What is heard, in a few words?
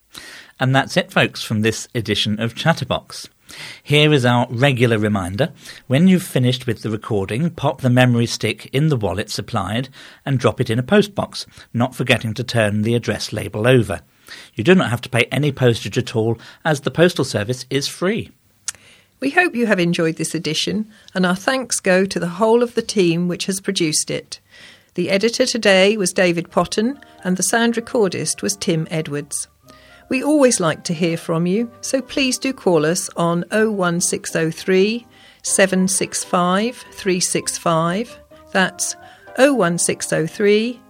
Closing Announcement